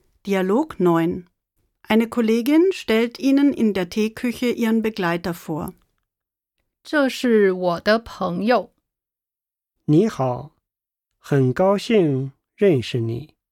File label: Dialog